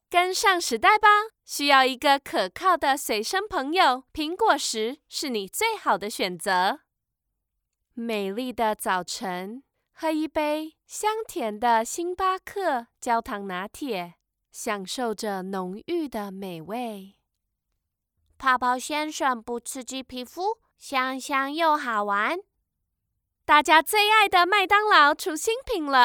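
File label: Chinese Mandarin Female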